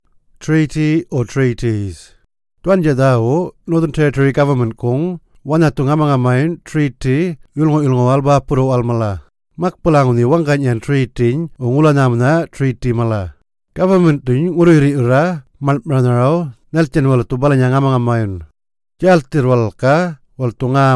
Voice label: Yolngu Matha